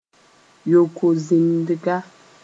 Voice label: "yo-kosĩindga", Mooré; urethra